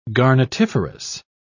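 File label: "garnetiferous", British English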